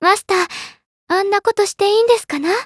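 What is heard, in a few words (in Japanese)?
intro, Japanese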